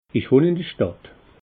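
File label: "ich wohn", Alsatian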